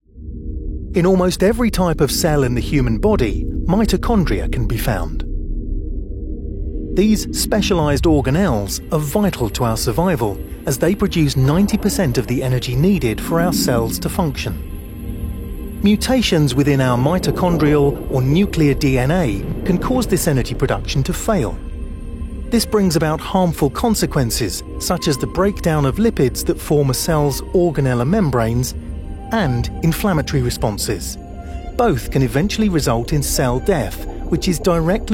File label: english british medical narration; une voix authentiquement britannique originaire de Londres, au Royaume-Uni